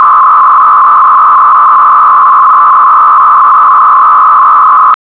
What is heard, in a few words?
SIP Call audio